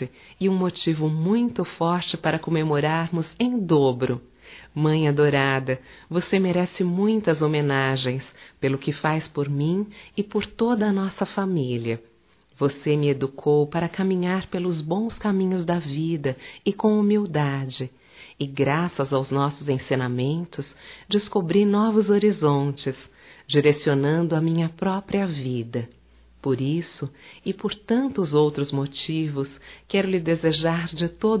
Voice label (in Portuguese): Dia das Mães aniversariante feminino, telemensagens; Telemensagens 2017